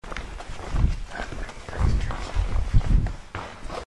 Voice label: male voice on first floor; redline

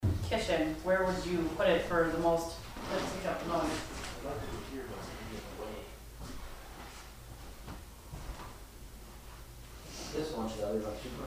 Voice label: living room whisper; amherst

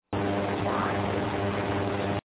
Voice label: male whisper furniture room everyone upstairs; paranormal; elsings 2nd hand shop

